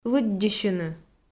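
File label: "лыддьыссьыны" Komi, female; kpv audio dict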